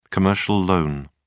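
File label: "commercial loan", English, British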